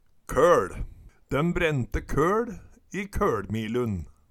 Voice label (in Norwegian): "køL", Numedalsmål